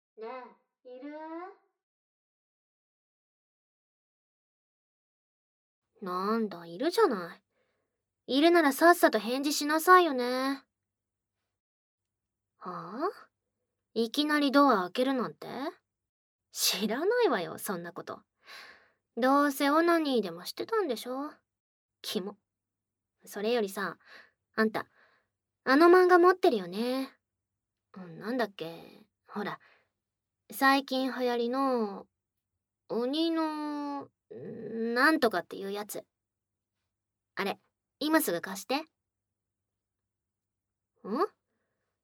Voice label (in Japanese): ギャル姉, ASMR; 効果音なし; お金を払ってギャル姉にお触り